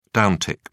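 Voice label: "downtick", British English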